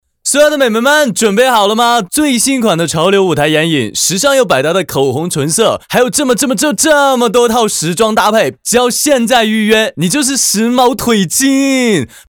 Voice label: 角色 游戏信息流 大甩卖; 仿李佳琦风

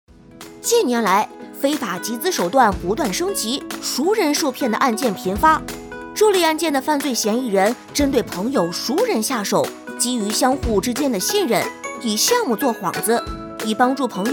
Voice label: 童声 非法集资科普

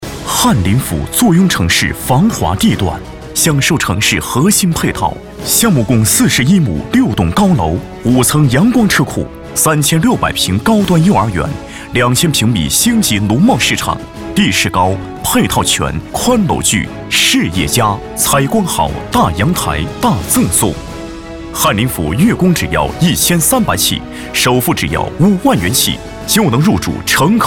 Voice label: 广告 房产

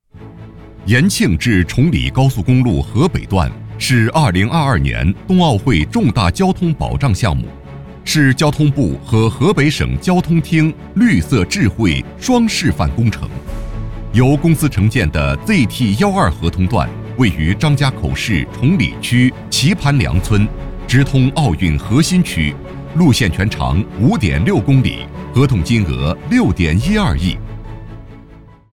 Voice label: 专题 工程解说; 交建优质

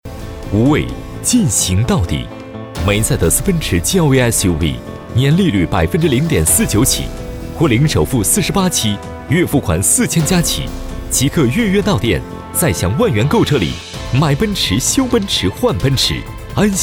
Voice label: 广告 汽车; 梅赛德斯奔驰

